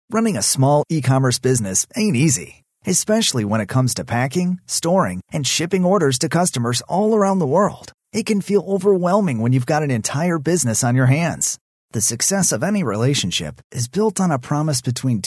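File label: English, American, male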